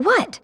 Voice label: Female; baldurs1